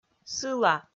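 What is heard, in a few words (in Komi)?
"сыла", Komi, female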